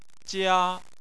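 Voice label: "jia", Chinese, first tone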